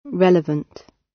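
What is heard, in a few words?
relevant, British; Study, education, research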